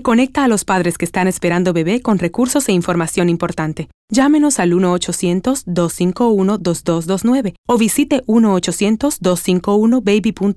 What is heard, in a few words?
Prenatal Spot Spanish; PHS